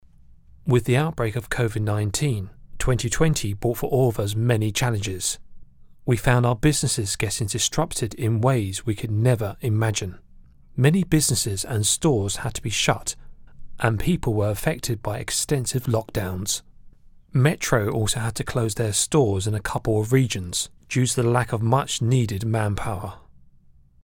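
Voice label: English European male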